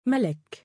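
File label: "malak" female name, Arabic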